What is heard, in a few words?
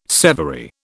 "severy", British